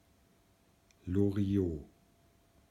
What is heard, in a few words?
"Loriot", German pronounciation of the name